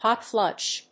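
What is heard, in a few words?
"Hotflush", English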